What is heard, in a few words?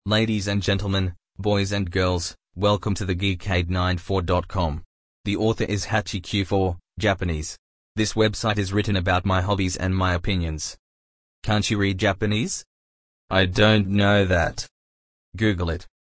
aussie